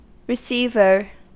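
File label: "receiver", US English; pronunciations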